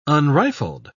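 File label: "unrifled", English, British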